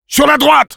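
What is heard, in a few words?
Sniper head right, French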